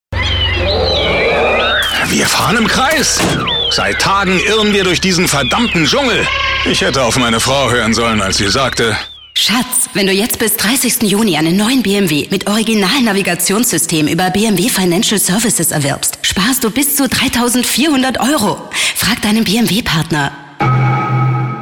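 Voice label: german austrian female commercial; voice demos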